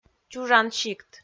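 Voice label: "чужан сикт", Komi, female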